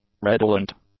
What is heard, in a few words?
"redolent", English; adjectives